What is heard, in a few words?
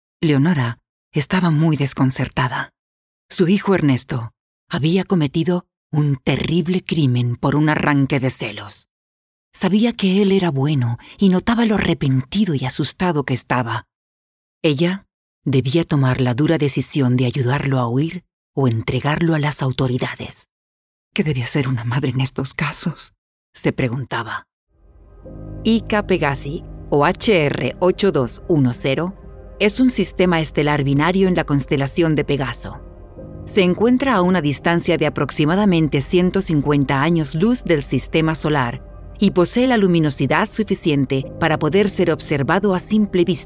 Audiobook